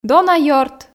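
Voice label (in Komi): "дона ёрт", Komi, female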